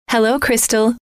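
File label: hello crystal, female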